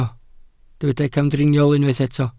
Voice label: "camdriniol", Welsh

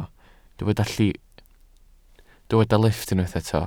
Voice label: "lifft", Welsh

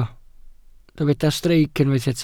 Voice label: "streic", welsh

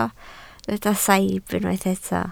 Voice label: "saib", Welsh

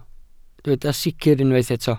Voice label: "sicr", Welsh

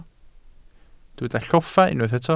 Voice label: "lloffa", Welsh

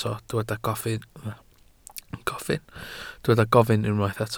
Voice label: "gofyn", Welsh

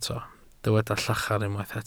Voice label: "llachar", Welsh

